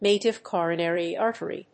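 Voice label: "native coronary artery", English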